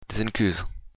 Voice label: sorry, occitan